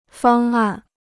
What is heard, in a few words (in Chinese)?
"方案", Chinese